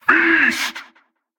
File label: Male Chokepoint Line, dialogue